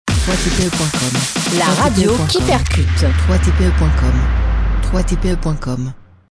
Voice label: La radio qui percute femme

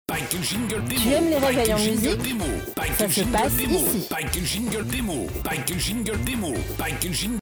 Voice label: Tu aimes les réveils en musique Ça se passe ici ! voix femme; jingle radio généraliste animateur voix homme et femme

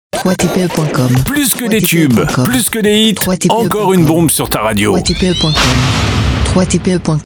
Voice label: Plus que des tubes , plus que des hits , encore une bombe sur ta radio, jingles radio voix homme; pack de 20 jingles radio voix homme

